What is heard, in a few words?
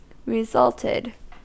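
"resulted", US English; pronunciations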